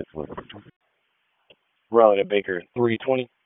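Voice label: Raleigh Police Northeast District Dispatch, p25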